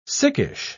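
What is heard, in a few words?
"sickish", British English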